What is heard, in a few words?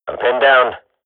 radio bot under fire, swat; agents